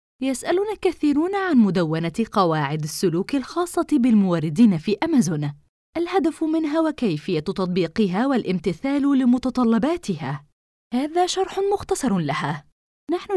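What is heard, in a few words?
Arabic, Saudi Arabia, female; voice over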